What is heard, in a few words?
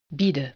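"bieden", Dutch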